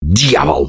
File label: reaction negative, russian; Gambler